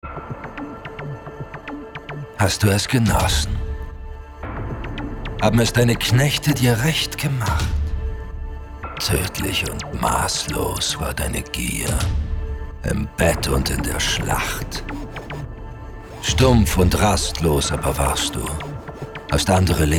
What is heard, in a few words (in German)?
m Stimme